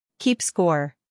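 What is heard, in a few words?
"humor", British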